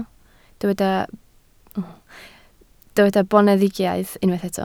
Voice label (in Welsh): "boneddigaidd", Welsh